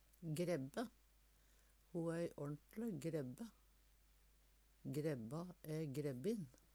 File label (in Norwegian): grebbe, Numedalsmål; Substantiv hokjønn